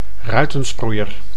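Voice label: "ruitensproeier", Dutch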